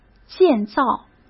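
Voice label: "建造" Mandarin Chinese; chinese-flashcards; hsk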